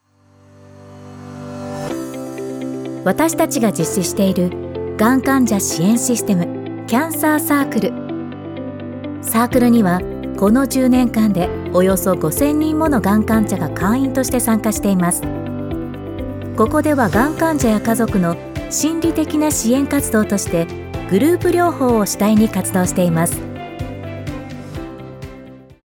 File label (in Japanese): ナチュラル／がん患者ケア